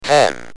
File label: "Ten", Speak & Spell UK